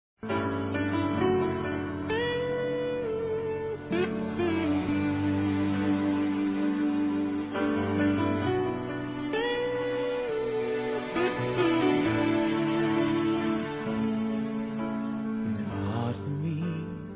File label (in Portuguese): Falar com você, fem; Telemensagens 2017